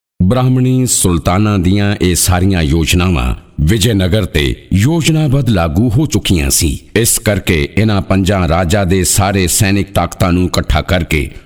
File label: Narration